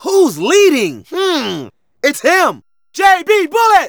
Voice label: Narration; Ollie King

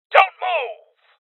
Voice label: Voices Freeze Male; Rebel Cops

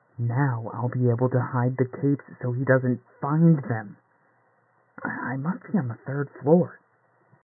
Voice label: Voices Tape

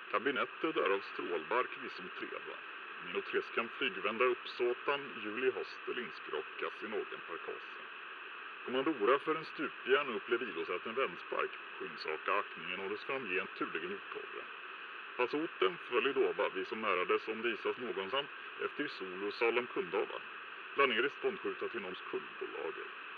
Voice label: TV reporter; teardown; ps5 windows xbox series xs

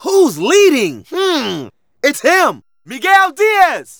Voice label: Narration

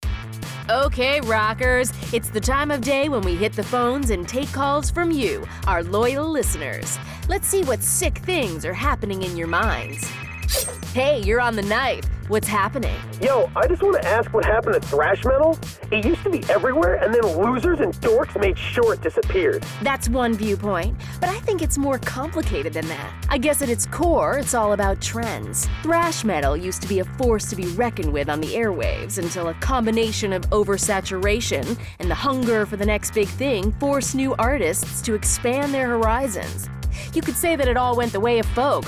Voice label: Commentary; The Knife 87.8

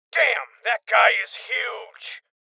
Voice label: Voices Heavy Spotted Male Young; Rebel Cops

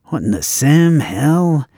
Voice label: generic male wondering

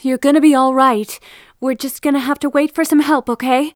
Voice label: female find body

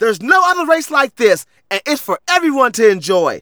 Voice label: Narration

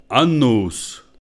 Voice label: prayer emotional; Infernal Radiation

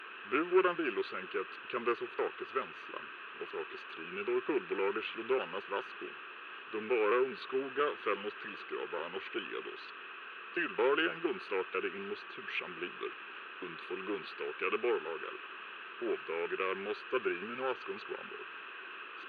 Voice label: TV reporter

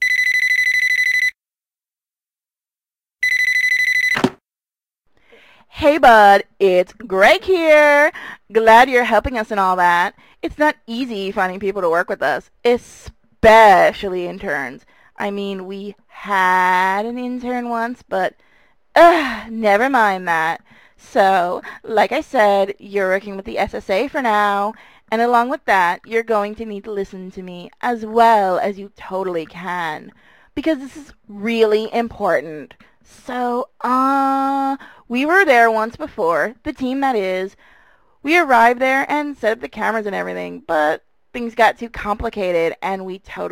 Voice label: Phonecall funny

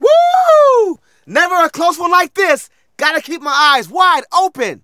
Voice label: Narration

